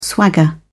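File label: "swagger", British English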